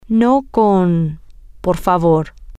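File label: Spanish, female; no With X Please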